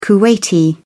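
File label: "kuwaiti", British English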